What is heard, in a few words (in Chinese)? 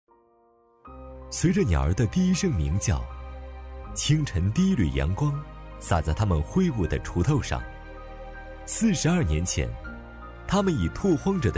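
宣传片有感情; 岭南红橙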